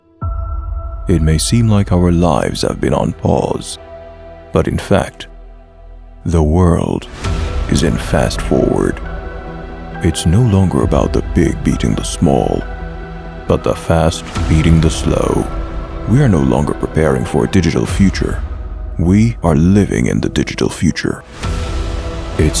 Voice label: Authoritative Voice Over with BG Music